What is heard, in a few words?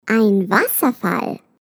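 GER waterfall, German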